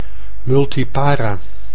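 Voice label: "multipara", Dutch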